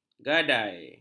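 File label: "gadai", Malay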